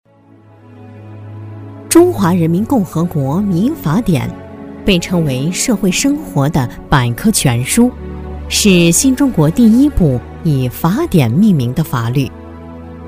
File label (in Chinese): 女-民法典解说【稳重平和】; 成熟厚重